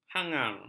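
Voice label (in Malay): "hangar", Malay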